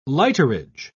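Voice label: "lighterage", English, British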